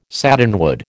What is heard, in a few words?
"satinwood", American